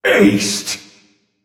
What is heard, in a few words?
Announcer; ace Enemy